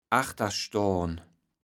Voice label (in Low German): "achterstohn", kaevels platt